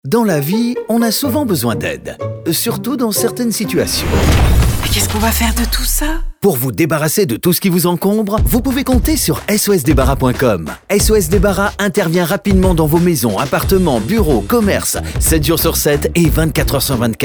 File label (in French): annonce audio; sos debarras